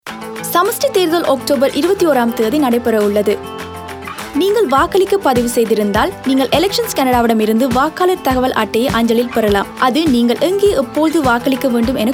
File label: Tamil, radio advert; Voter information Card